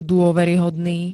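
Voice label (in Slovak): "dôveryhodný", Slovak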